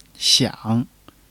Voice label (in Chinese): "想" Chinese